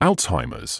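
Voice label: "Alzheimer’s", British English; ALTS-high-muhz; ˈæltsˌhaɪ.məz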